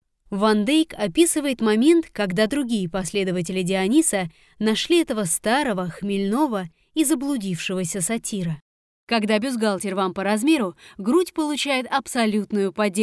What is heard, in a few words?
Narration Mix